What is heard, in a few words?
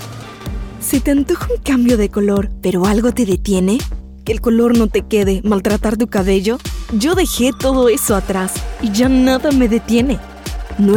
Spanish